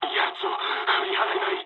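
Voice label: aircraft damaged mood med, speech japanese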